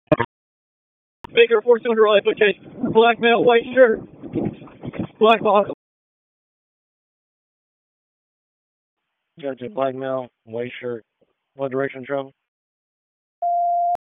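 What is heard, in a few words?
Police Dispatch; Raleigh; Southeast District; wake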